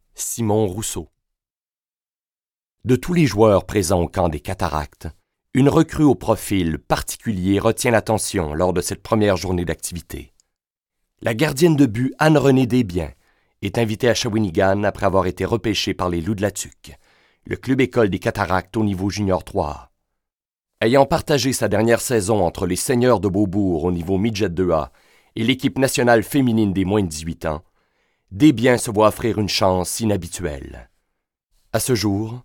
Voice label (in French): narrateur fin nuancee